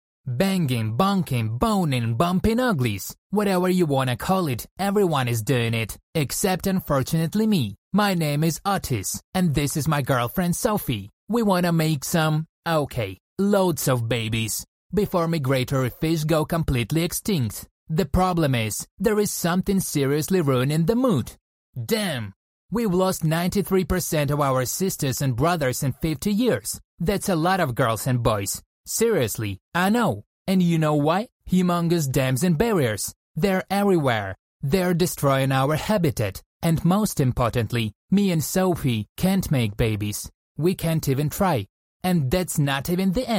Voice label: english campaign vo; wwf fish migration